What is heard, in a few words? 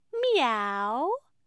english cat